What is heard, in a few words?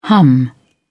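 "hum", English, uk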